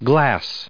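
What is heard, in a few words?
"glass", English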